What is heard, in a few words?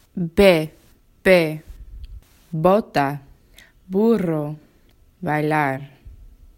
Letra B, el alfabeto español; Trayectos